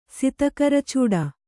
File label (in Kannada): "ಸಿತಕರಚೂಡ", Kannada